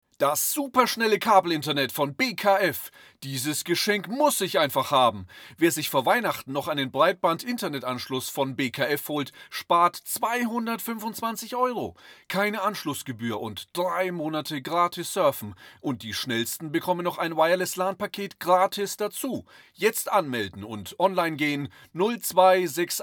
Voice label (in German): Hoerprobe Werbung Kabelinternet; BKF